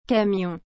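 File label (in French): "camion", French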